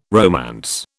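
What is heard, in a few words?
"romance", British English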